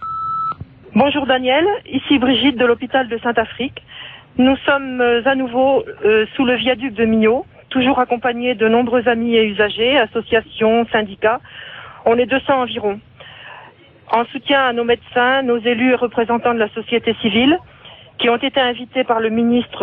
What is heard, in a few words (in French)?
répondeur; Fermeture hopital